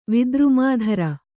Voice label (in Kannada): "ವಿದ್ರುಮಾಧರ", Kannada